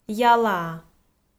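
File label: "ялаа", Komi, female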